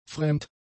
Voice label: "fremd", German; adjectives